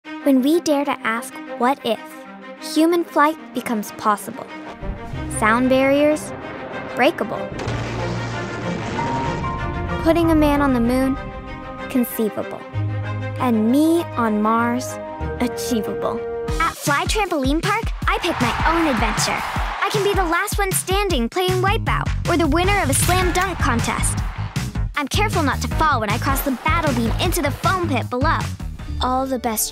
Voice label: Real Kid Voices